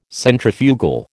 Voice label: "Centrifugal", American

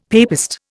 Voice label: "papist", Indian; Dictionary

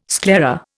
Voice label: "sclera", Indian

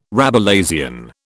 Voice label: "Rabelaisian", British

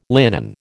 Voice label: "linen", American

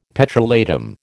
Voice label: "petrolatum", American

